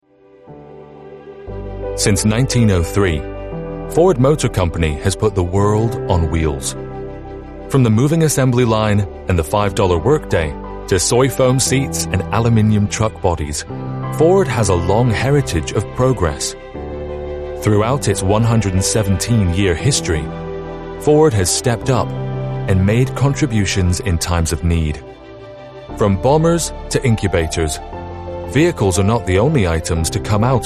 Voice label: english international documentaries; locutor profesional de habla inglesa

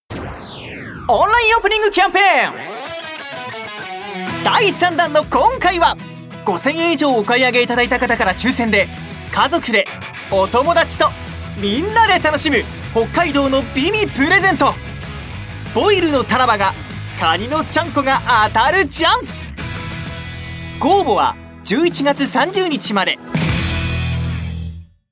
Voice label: japanese commercial

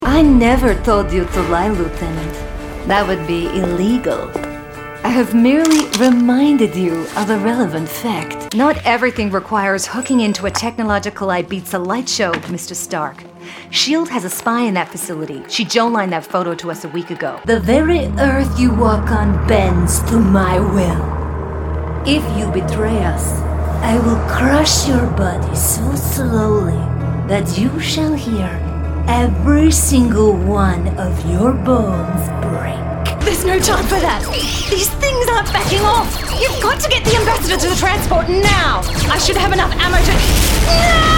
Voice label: english australian video games; australische Sprecherin

